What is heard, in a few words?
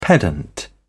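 "pedant", English, British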